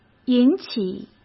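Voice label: "引起", Mandarin Chinese; chinese-flashcards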